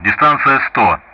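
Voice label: Speech Russian; DCS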